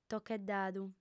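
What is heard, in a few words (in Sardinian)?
"tocheddadu", Sardinian, female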